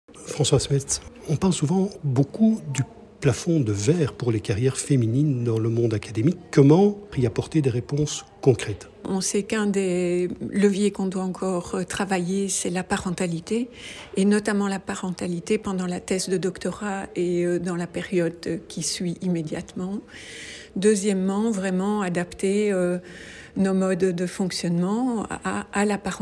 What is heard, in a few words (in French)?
extrait intvw; rectrice de l'UCLouvain; Le Musée L célèbre les 600 ans de l'Université de Louvain